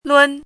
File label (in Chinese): "lun1", 汉字语音; chinese-voice